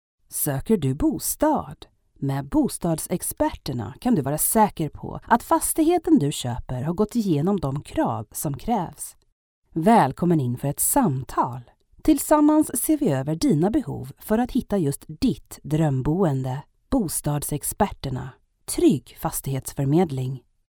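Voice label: swedish female mature commercial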